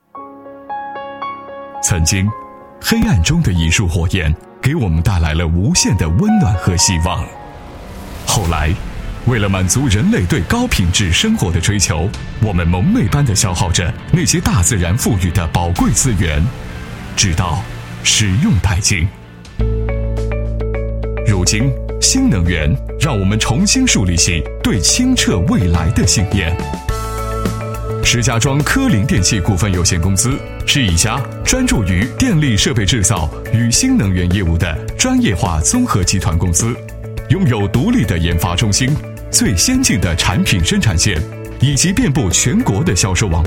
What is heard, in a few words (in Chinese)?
男 专题 光伏设备 成熟 大气, 国语男声配音 磁性; 磁性录音